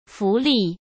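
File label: "浮力", Mandarin Chinese; hsk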